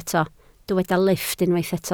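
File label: "lifft" Welsh, spoken word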